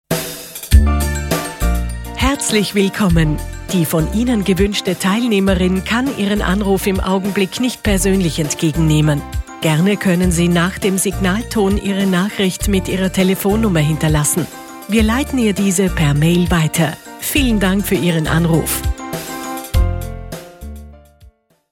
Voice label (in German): Standard weiblich universal